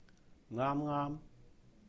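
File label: "啱啱", Cantonese; just, just now; ngāam-ngāam; ngaam1 ngaam1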